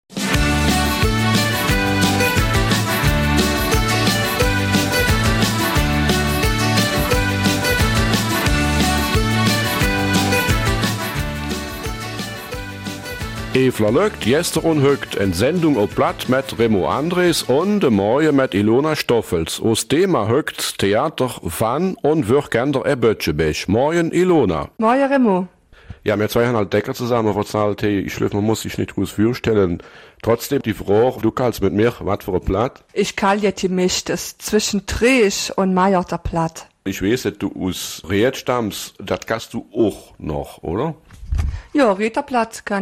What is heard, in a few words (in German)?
Eifeler Mundart; Kindertheater in Bütgenbach